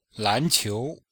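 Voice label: "lan2qiu2", chinese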